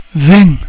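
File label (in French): "vingt", French; cat numeration doigts; Abuledu; leterrier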